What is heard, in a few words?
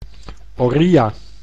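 "Oriya", Dutch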